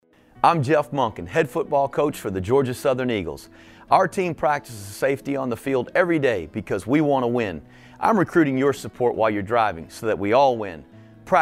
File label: announcements